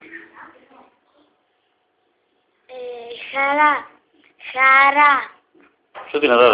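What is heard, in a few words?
"joy", Greek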